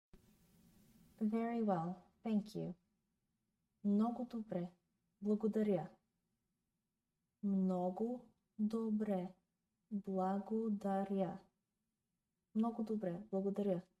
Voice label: Very well thank you, bulgarian; the talking bulgarian phrasebook